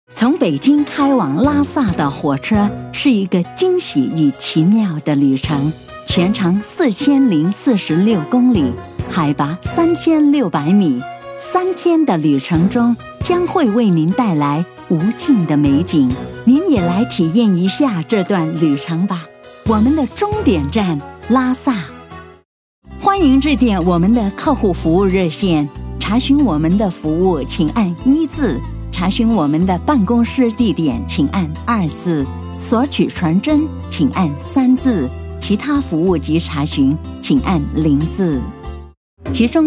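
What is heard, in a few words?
Mandarin, narration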